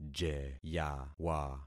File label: "jeyawa", concat syllables; Affixing experiment